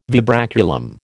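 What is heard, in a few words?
"vibraculum", American English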